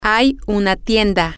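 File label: there is a store, Spanish, female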